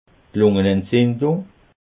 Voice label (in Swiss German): "lungenentzindung", alsace